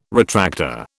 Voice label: "retractor", British